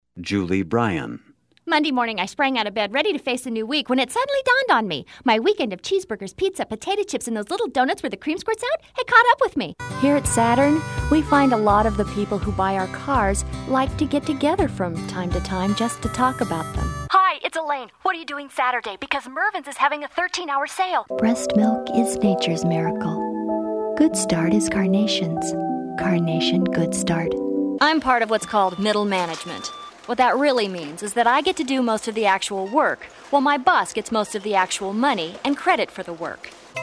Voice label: VO DEMOS - Women